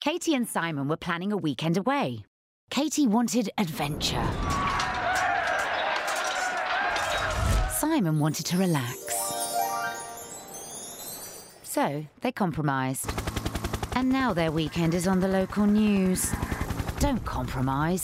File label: female english commercial; ASDA; English southern accents